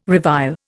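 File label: "revile", Indian English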